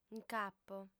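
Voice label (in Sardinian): "nc'apo", female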